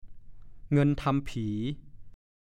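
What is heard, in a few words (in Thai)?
"เงินทำผี" ภาษาโคราช; เงินค่าขอขมา กรณีหญิงชายหนีตามกันบางครั้ง ใช้คำว่า บอกผี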